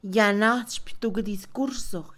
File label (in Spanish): pronunciar un discurso, Mixe, Mujer; Habla; INALI